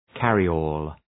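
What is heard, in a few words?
"carryall", English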